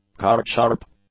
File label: "cardsharp", English; nouns